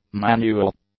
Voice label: "manual", English; adjectives

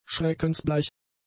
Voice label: "schreckensbleich", German; adjectives

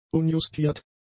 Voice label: "unjustiert", German; adjectives

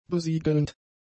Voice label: "besiegelnd", german; adjectives

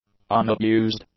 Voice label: "unabused", English; adjectives

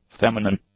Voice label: "feminine", english; adjectives

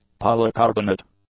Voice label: "polycarbonate", English

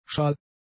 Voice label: "schal", german; adjectives

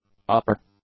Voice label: "upper", english; adjectives

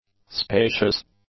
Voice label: "spacious", english; adjectives

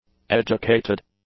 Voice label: "educated", English; adjectives

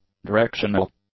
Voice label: "directional", english; adjectives

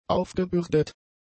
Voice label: "aufgebürdet", German; adjectives

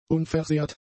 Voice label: "unversehrt", German; adjectives

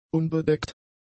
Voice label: "unbedeckt", German; adjectives

